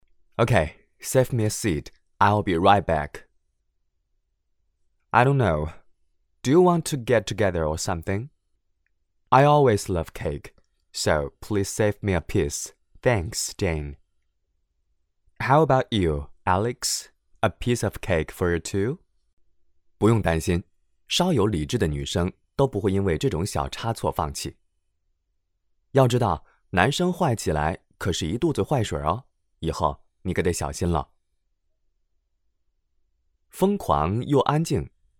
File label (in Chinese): 男 英文 讲述类; 人工智能简单中英文录音